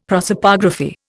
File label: "prosopography", Indian; Dictionary